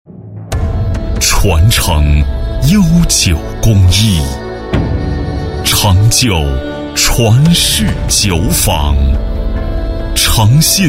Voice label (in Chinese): 男 广告 酒类大气, 国语男声配音